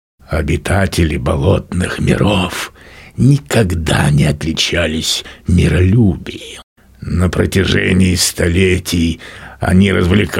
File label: narr